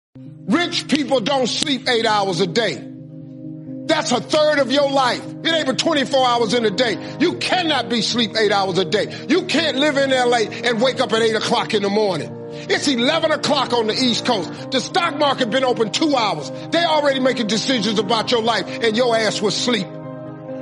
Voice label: motivation speech; tnpsc